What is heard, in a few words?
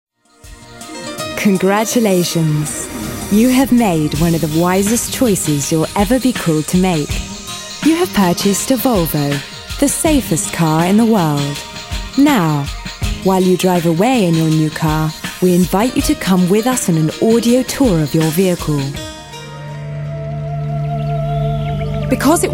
english british corporate videos